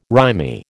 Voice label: "rimy", American